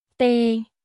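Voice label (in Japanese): クメール語（カンボジア語）の日常会話基本フレーズ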